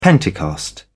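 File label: "pentecost", British English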